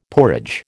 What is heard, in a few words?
"porridge", American